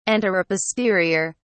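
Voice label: "anteroposterior", British English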